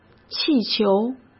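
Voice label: "气球", Mandarin Chinese; chinese-flashcards; hsk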